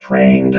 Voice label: "trained", robot